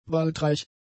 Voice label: "waldreich", German; adjectives

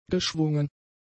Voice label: "geschwungen", German; adjectives

